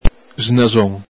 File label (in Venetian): "snaxón", Veneto; busybody, nosey parker; el Galepin; ficcanaso, curiosone